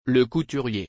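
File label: "Le couturier", French; Le couturier (m.); خیاط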